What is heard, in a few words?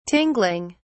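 "tingling", British English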